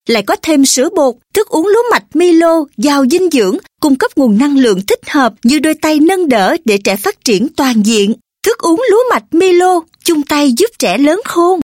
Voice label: South Female Vietnamese